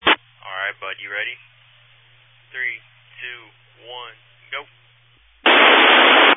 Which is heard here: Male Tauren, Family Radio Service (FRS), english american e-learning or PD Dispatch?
Family Radio Service (FRS)